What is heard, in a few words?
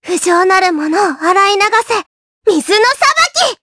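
Vox Skill, voices, Japanese; Lilia; kings raid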